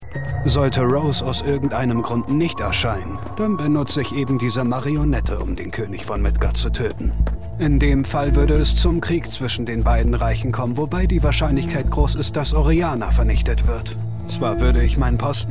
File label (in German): Monolog